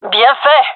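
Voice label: cheer, gendarmerie female; vo; agents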